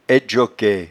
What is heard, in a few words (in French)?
"aidjoquaie", patois jura